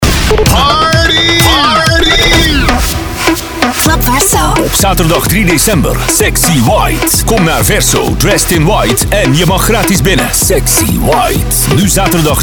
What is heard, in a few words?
party commercial; verso